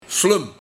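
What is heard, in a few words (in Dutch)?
"Slum", dialect; Slim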